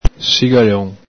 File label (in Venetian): "sigalon", Veneto